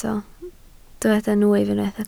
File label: "nwyf", Welsh